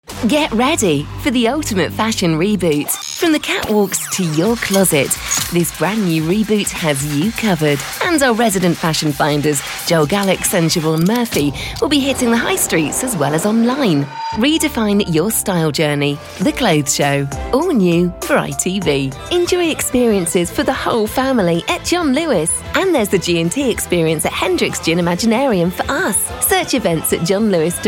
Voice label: commercial, Fresh Warm Natural Voiceover